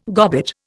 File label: "gobbet", Indian